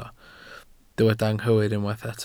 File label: "anghywir", Welsh